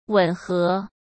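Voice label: "吻合", Mandarin Chinese; hsk; chinese-flashcards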